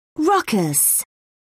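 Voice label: "ruckus", English, British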